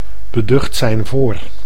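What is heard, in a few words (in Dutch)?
"beducht zijn voor", Dutch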